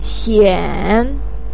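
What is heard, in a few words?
"qian3", Mandarin pinyin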